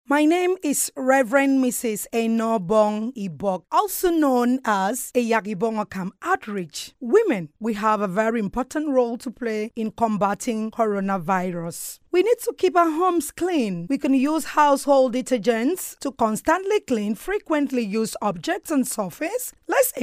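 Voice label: Female, English; Akwa Ibom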